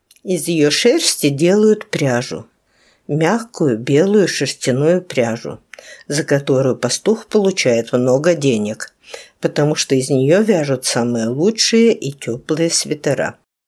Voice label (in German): russisch